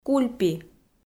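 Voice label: "кульпи", Komi, female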